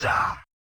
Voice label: Yamaha DJX 2B, "Rock The House", robot